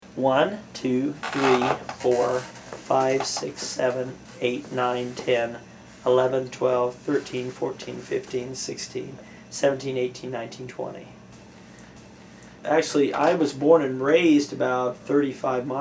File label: Northwest Missouri conversation; usa; dialect samples